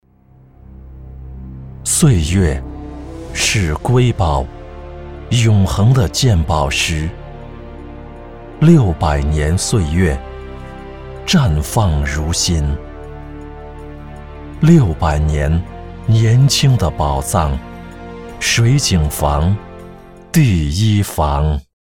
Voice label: 男 酒广告; 水井坊

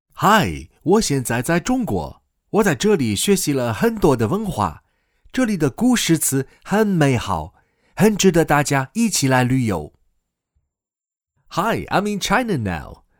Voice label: 男 模仿 外国人讲中文

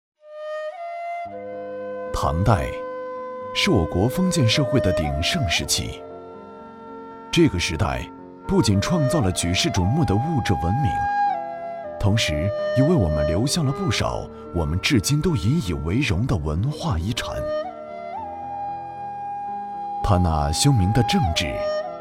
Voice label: 男 舒缓纪录片; 杜甫

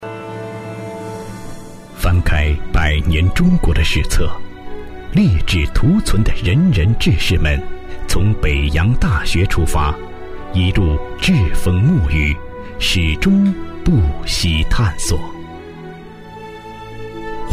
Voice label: 专题片; 栉风沐雨; 砥砺前行

